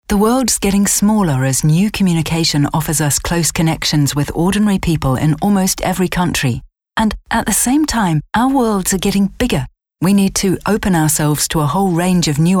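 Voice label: British English Kommentar Neutral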